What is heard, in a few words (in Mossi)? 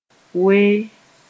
"wee", moore